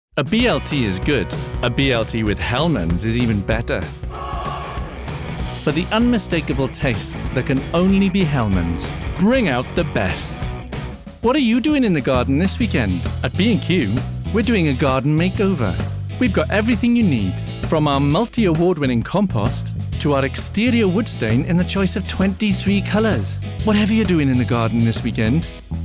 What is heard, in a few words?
Commercial Welsh Accent